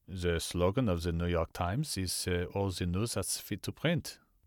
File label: German